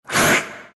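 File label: anger, Chinese; Dota 2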